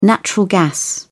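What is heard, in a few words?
"natural gas", British English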